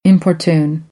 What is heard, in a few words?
"importune", American; t - ʧ